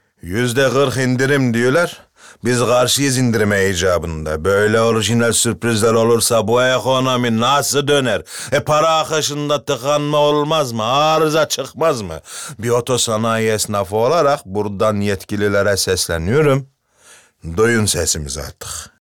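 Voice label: Central Anatolian dialect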